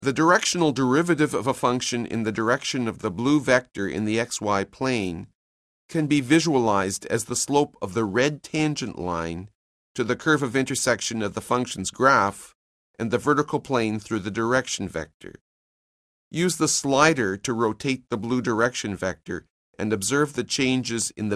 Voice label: narration; CALCULUS 7E; cengage